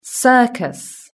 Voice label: "circus", british english